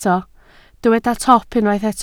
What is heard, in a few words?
"top", Welsh